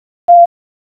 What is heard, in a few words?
Police Northeast District Disp, p25; Raleigh